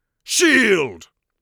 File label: shield, mage voice; Mage Voice Pack